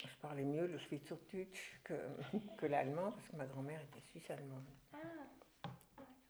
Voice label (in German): shwyzerdutsch; que signifie le mot dialecte en suisse